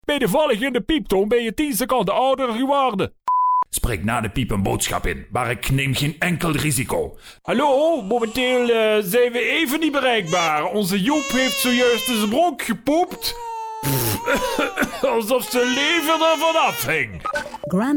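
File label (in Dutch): accent male LIMBURGS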